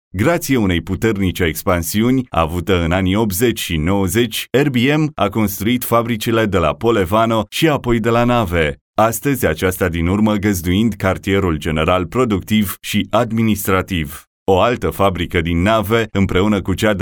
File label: Romanian; foreign languages